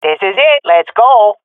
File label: round start, swat fem; vo; Counter Strike Model Pack; CS:GO